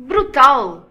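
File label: awesome, Finnish; gcompris-data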